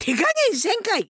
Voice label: necromancer oldwoman guard, voice

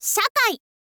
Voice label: "syakai", info girl voice

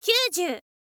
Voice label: "kyuuzyuu", girl; voice

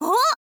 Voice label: "o", girl

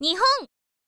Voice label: "nihon", info girl voice

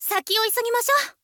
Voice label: swordwoman win, voice

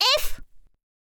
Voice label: "f", girl; voice

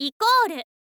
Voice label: "equal", girl; voice

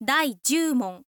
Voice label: info lady; voice

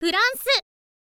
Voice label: "france", girl; voice

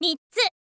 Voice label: "mittsu", info girl voice

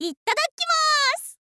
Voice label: "ittadakkimaasu", girl

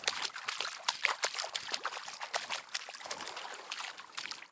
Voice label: beach flirt

